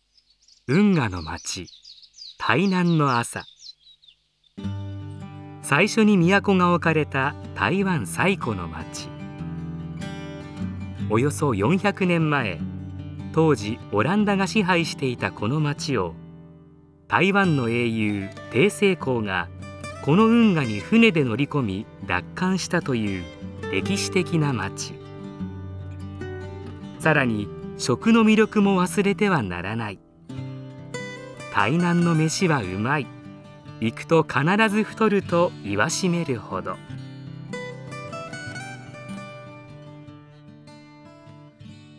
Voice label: voice sample 旅番組風